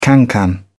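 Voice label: "cancan", British English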